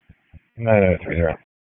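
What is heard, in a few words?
Public Safety Tactical, p25; wake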